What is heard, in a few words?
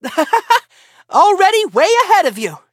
battle en